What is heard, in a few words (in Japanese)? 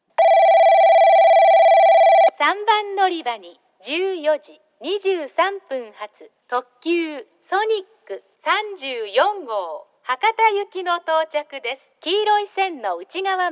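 3番のりば接近放送, 女声; 行橋; ソニック34号 博多行き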